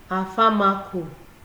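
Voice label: "afamako", Nigerian Pidgin, female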